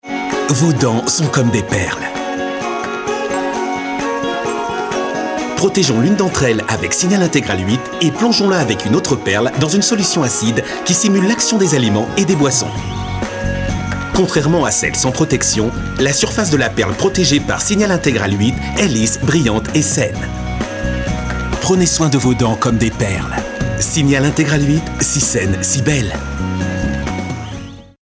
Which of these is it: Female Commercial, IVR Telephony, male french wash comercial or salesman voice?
male french wash comercial